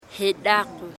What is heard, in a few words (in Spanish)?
Embera Chamí Luna Jedako